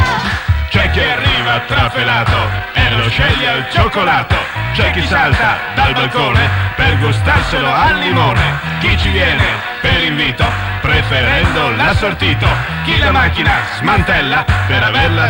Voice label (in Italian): spot, FM; superradio fontanella